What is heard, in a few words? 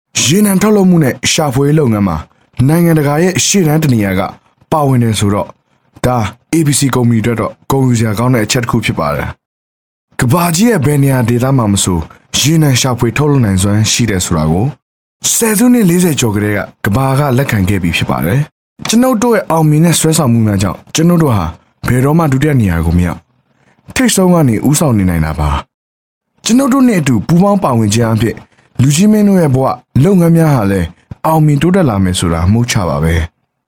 Male Burmese Marketing